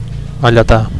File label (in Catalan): "alletar", Catalan; to breastfeed